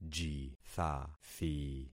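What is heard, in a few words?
"jithathi", concatenated syllables; Affixing experiment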